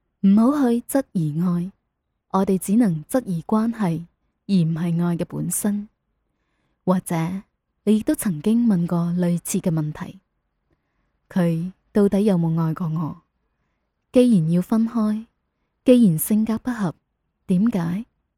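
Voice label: Cantonese Female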